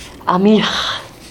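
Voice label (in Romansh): "putèr amih", Romansh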